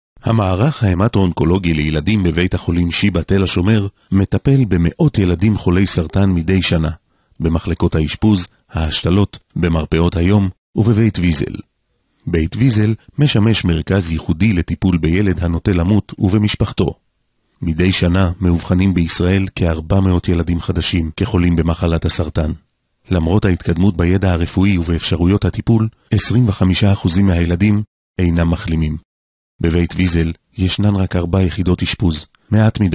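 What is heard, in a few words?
Hebrew, male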